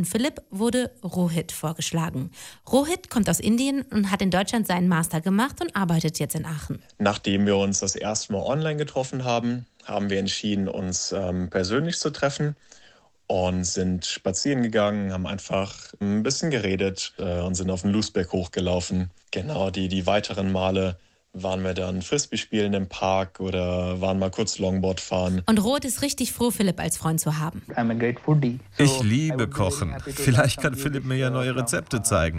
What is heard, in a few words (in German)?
interview